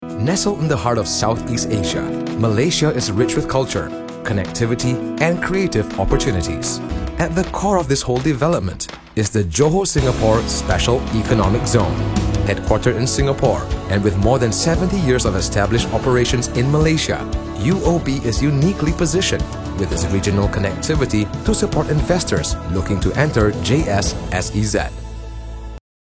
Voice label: ENG, Commercial Gentle, Calm, & Authoritative; Johor Singapore Special Economic Zone

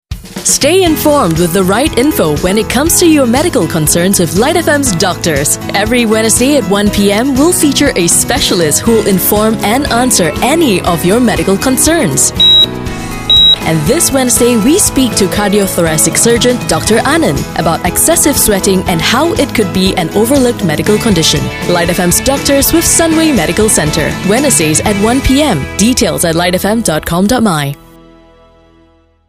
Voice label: Promo; Doc On Call

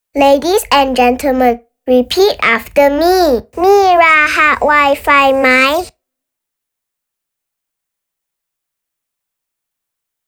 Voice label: Thai, Eng; Maxis

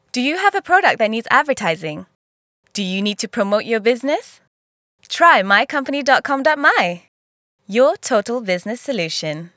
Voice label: Radio

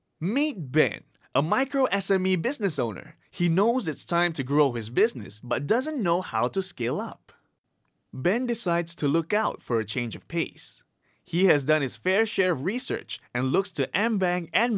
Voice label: Eng Relaxed animation video explainer

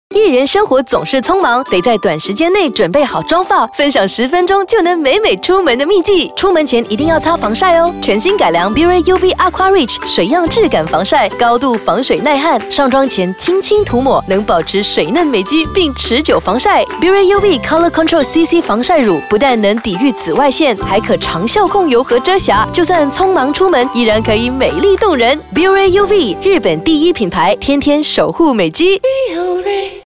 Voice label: VO SAMPLE MAND Energetic

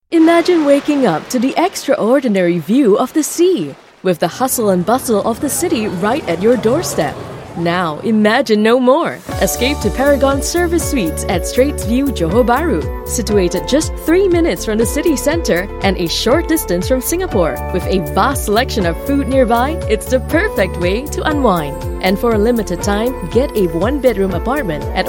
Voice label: ENG; HOTEL GRAND PARAGON; PARAGON SERVICED SUITES JB

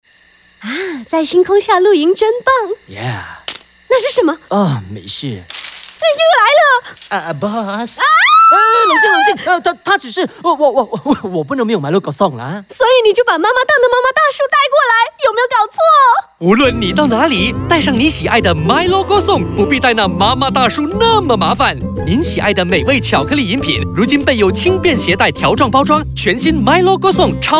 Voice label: MANDARIN; Milo Kosong Camping

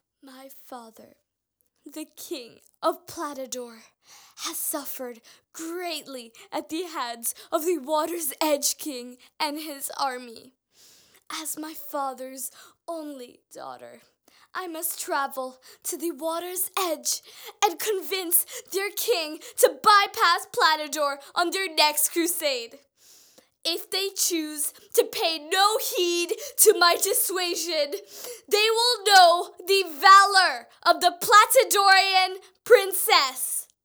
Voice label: VO Voice

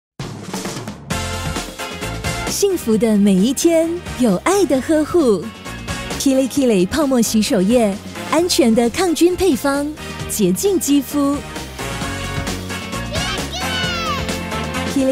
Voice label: shampoo soft caring; Kirei Kirei shampoo

